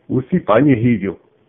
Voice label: stop, swahili